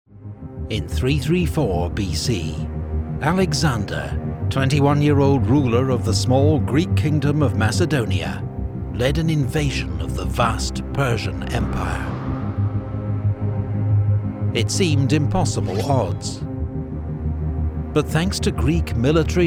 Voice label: narration